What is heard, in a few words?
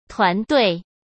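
"团队" Mandarin Chinese; hsk; chinese-flashcards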